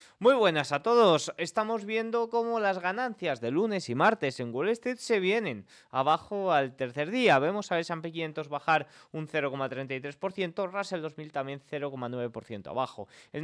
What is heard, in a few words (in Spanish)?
radio; noticias bolsa